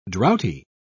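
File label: "droughty", British English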